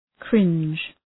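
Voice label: "cringe", English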